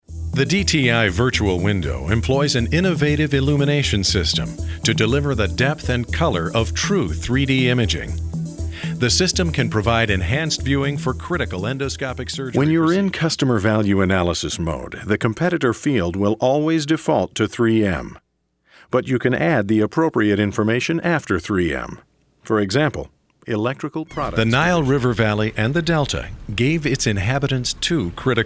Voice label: Narr